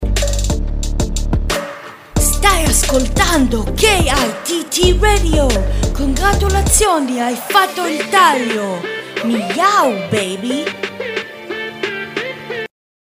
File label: ITALIANO